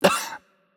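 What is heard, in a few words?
Italian, hurt light; VO; bloom minigame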